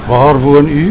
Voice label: waar woon u, Afrikaans